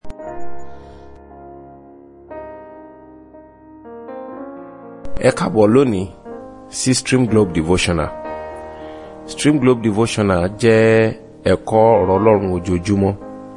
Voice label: Devotional Audio Yoruba